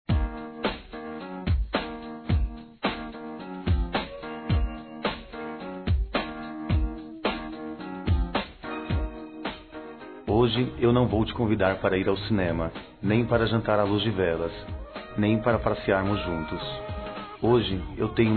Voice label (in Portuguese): Sensual Masculino; Telemensagens; Dia Dos namorados; Telemensagens 2017